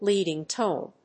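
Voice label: "Leading-tone", English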